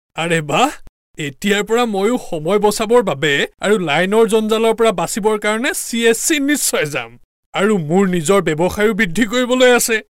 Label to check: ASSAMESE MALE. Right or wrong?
Right